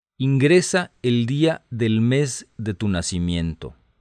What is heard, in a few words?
Spanish, hotline